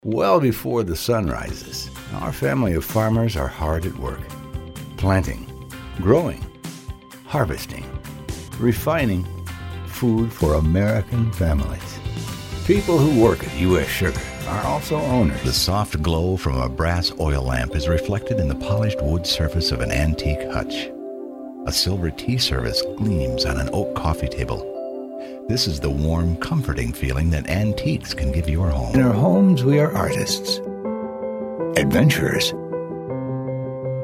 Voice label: MALE COMMERCIALS

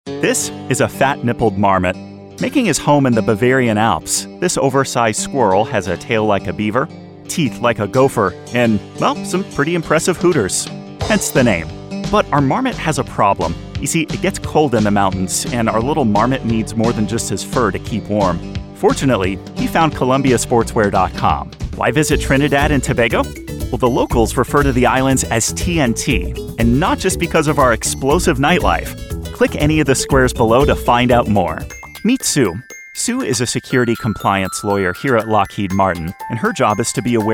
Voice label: Explainer

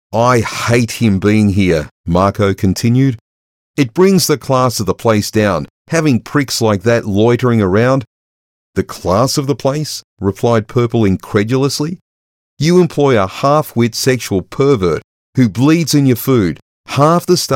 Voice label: Narration Sample Profanity

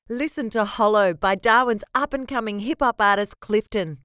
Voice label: Ad

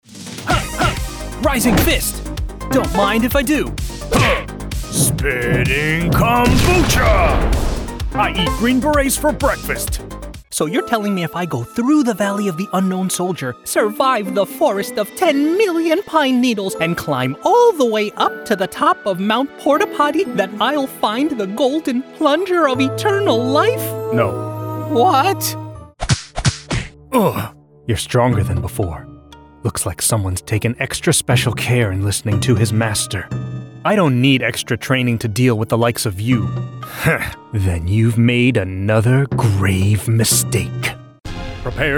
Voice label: video game animation cartoon male voice over

